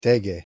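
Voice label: "tege", Proto-Indo-European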